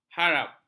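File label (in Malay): "harap", Malay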